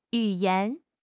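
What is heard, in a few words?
"yu3 yan2", Mandarin Chinese; HSK4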